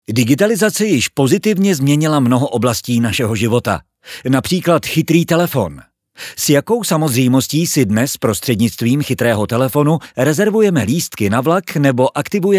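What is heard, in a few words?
Czech, male, narration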